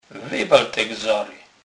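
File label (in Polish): "gzary", Kurpie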